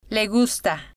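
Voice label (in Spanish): le gusta, Spanish, female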